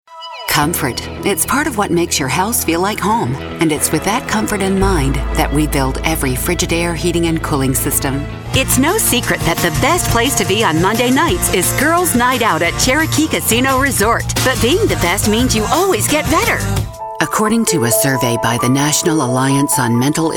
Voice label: Announcer